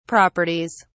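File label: "properties", British English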